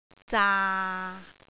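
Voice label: "zaa6", Cantonese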